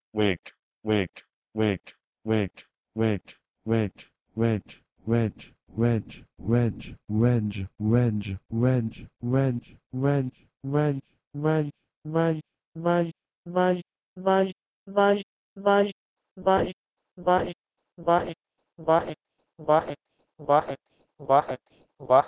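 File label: Proto-Indo-European "wegh" to Sanskrit "vahati"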